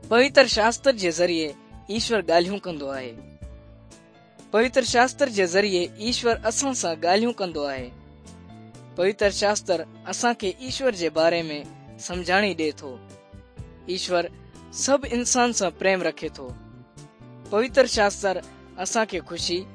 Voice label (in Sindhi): good news sindhi dukslinu, drama